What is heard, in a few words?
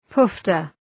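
"poofter", English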